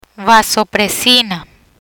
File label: "Vasopresina", Spanish; vasopressors